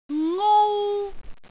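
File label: "ngou1", Cantonese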